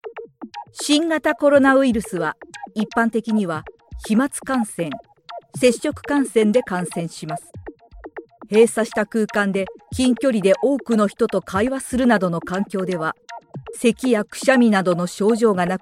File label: japanese documentaries